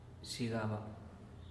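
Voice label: "xhigaba", Teotitlán del Valle Zapotec; de mi pensamiento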